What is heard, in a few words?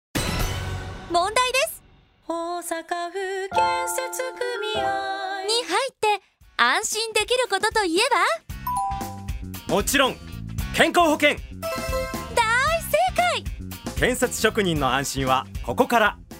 radio; osaka